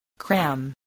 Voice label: "cram", voice spelling

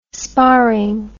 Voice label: "sparring", English

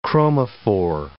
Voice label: "chromophore", English

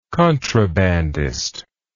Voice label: "contrabandist", English

